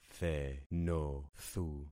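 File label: "thenothu", concatenated syllables; Affixing experiment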